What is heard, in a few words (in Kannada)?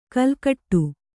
"ಕೆಲಕಡೆ", Kannada